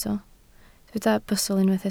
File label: "bustl", Welsh